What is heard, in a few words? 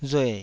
"rue3", taiwanese syllable